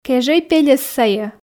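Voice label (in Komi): кежӧй пельӧс сайӧ, Komi, female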